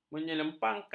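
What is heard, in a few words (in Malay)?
"menyelempangkan", Malay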